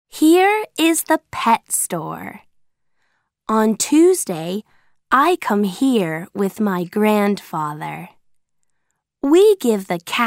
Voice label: Audio American English; Where I Live